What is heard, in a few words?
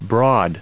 "broad", en us